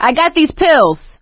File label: take pills, survivor voice; Left 4 Dead 2; producer